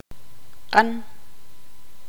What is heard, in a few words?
tzutujil; amarillo